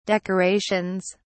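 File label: "decorations", British English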